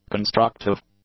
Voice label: "constructive", English; adjectives

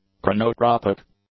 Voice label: "chronotropic", English; adjectives

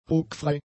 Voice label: "ruckfrei", German; adjectives

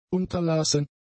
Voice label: "unterlassen", German; adjectives

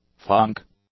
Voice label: "funk", English; nouns

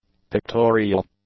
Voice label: "pictorial", english; adjectives

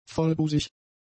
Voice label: "vollbusig", German; adjectives

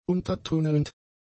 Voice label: "untertunnelnd", German; adjectives

